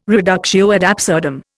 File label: "reductio ad absurdum", Indian